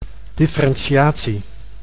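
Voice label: "differentiatie", Dutch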